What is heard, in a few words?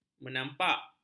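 "menapak", Malay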